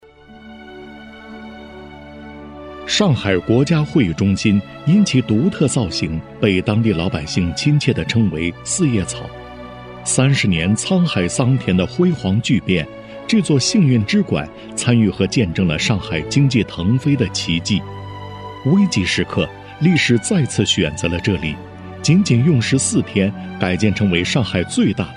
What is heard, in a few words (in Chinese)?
男-上海守沪之战-讲述宣传; 柔和